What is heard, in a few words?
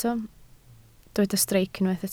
"streic", Welsh